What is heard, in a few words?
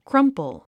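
"crumple", English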